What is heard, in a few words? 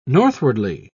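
"northwardly", British English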